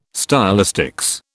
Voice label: "stylistics", British

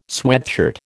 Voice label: "sweatshirt", American

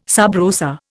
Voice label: "sub rosa", Indian

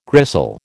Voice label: "gristle", American

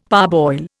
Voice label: "parboil", Indian; Dictionary

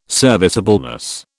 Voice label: "serviceableness", British

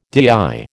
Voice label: "DIY", American

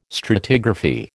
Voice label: "stratigraphy", American

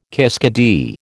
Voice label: "kiskadee", American

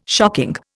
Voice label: "shocking", Indian

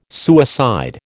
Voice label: "suicide", American

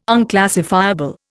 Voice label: "unclassifiable", Indian

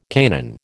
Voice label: "Canaan", American

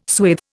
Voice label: "swathe", Indian

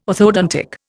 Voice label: "orthodontics", Indian

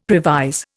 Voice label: "previse", Indian; Dictionary

